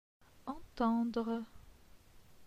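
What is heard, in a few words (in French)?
"Entendre", French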